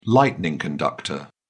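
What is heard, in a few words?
"lightning conductor", English, British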